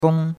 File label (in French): "gong1", chinois; Cours-de-Chinois